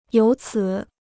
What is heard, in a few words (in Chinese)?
"由此", Chinese